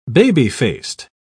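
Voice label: "baby-faced", British English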